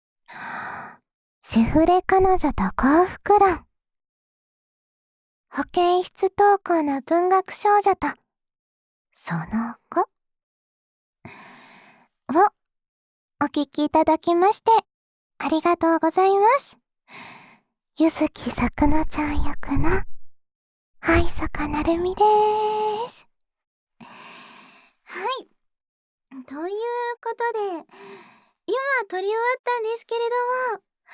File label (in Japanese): フリートーク